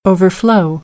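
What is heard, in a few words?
"overflow", American English; AmericanBritish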